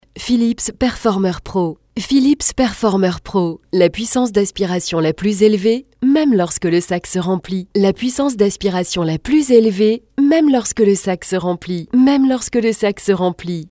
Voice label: voix french voiceover locutora francesa video, Commercial voice overs; Recording in french; Recording in french made for "Phillips"